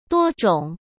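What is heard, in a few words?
"多种", Mandarin Chinese; chinese flashcards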